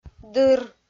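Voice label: "дыр", Komi, female; kpv audio dict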